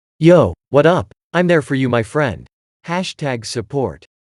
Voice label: voicebot cool